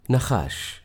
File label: serpent in hebrew translation